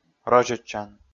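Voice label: "розьӧдчан", Komi, male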